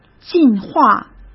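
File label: "进化", Mandarin Chinese; chinese flashcards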